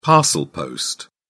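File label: "parcel post", British English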